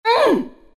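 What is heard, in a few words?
pain, chinese; dota2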